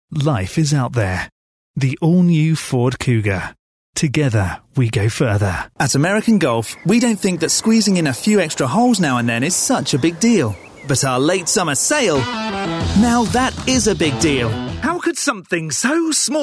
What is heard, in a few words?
commercial